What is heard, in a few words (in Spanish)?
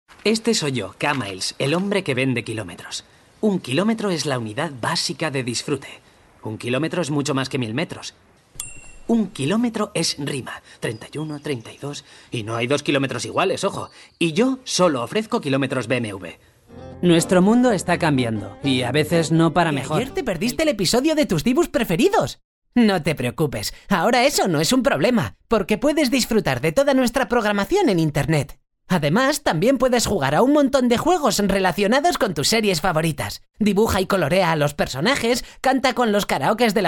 Locutor espanol